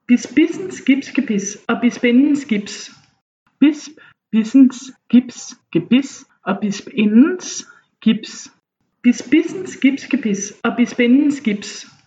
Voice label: tongue twisters, Danish